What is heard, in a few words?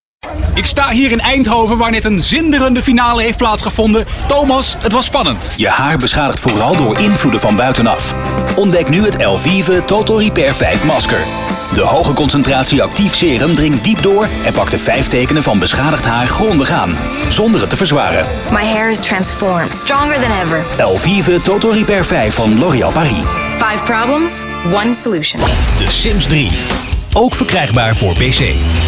Commercials